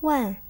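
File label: "wan", Chinese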